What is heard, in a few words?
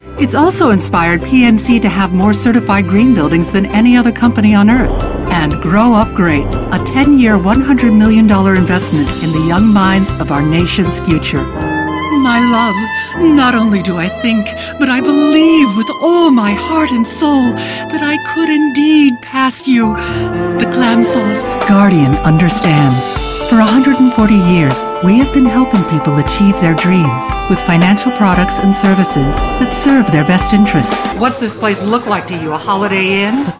Commercial Radio